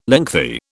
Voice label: "lengthy", British

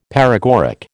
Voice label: "paregoric", American

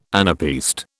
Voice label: "anapaest", British

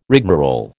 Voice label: "rigmarole", American

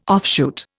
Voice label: "offshoot", Indian

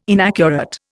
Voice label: "inaccurate", Indian

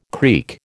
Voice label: "creek", American

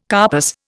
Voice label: "carpus", Indian

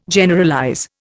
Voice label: "Generalize", Indian

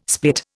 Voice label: "split", Indian

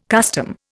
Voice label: "custom", Indian

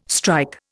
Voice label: "strike", Indian

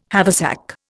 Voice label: "haversack", Indian

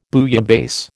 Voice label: "bouillabaisse", American

